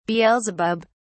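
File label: "beelzebub", English, British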